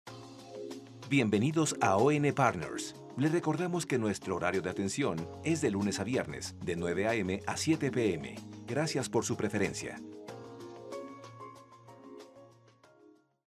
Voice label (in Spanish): mensaje para conmutador